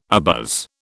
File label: "abuzz", British